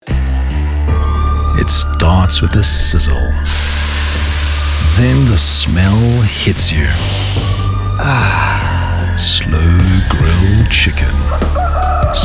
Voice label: Appetite Appeal, voiceover